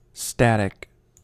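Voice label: "static", US English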